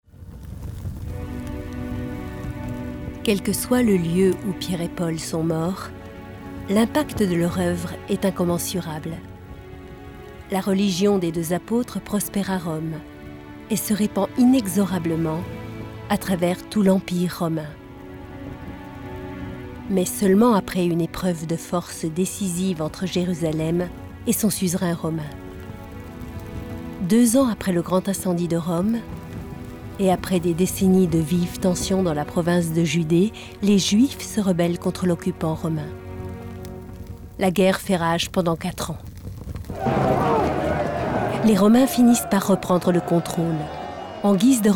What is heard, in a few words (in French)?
Narration; Arte